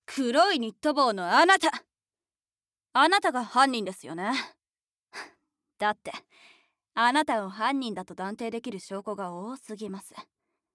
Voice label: 知的な男の子

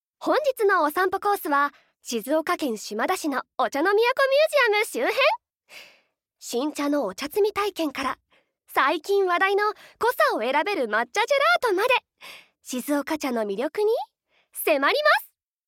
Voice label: ナレーション

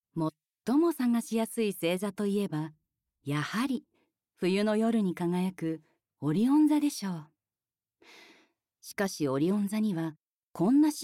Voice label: ナレーション

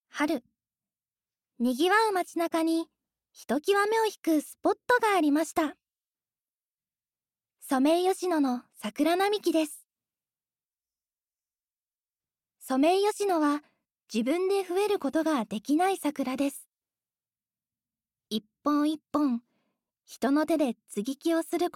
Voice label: ナレーション, 声優・ナレーター

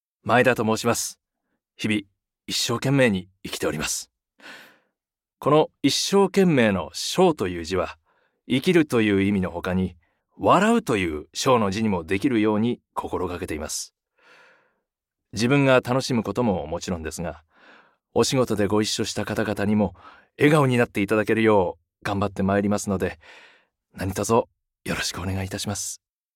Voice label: フリートーク

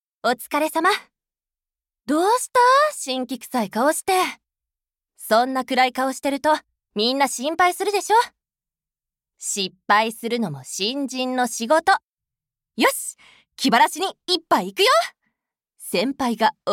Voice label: 20代後半上司

